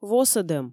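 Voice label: "восӧдӧм", Komi, female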